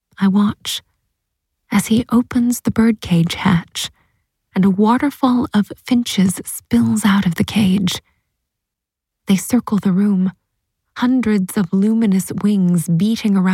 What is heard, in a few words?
american voice audiobook, American Female Voice